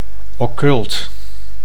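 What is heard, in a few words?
"occult", Dutch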